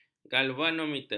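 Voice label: "galvanometer", Malay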